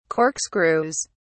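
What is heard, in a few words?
"corkscrews", British English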